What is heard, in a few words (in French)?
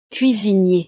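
"cuisinier", French; profesiones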